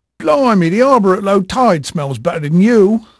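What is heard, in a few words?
English male, voice; Pirates Of The Caribbean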